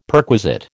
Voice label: "perquisite", American